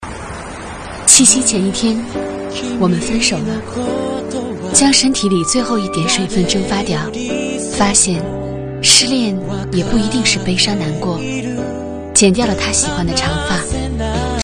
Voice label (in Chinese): 女, 旁白, 微电影; 小情书